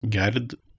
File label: "gerd", Proto-Germanic